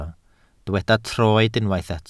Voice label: "troed", Welsh

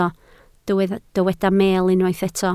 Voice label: "meel", Welsh

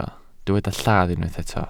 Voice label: "lladd", welsh

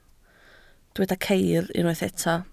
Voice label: "ceir", Welsh

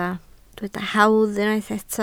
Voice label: "hawdd", Welsh

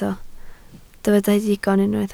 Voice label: "digon", Welsh

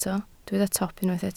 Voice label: "top", Welsh

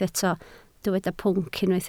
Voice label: "pwnc", Welsh

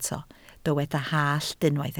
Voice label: "hallt", Welsh

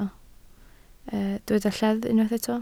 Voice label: "lleddf", Welsh

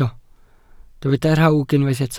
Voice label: "rhawg", Welsh

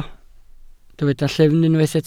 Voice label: "llyfn", Welsh

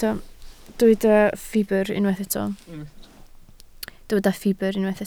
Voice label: "ffibr", Welsh

